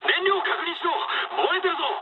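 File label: Japanese tank damaged mood high; War Thunder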